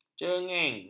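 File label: "cengeng", Malay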